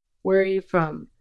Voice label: Voice Acting es F